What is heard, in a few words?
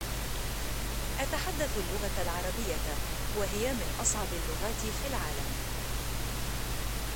arabic